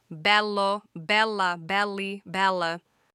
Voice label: beautiful in italian